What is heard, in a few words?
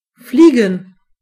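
fly, German voices words; gcompris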